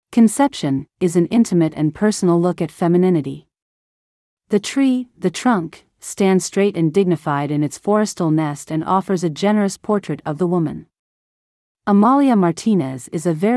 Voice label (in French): anglais